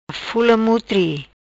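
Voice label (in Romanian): "Fula Mutri", Macanese Patuá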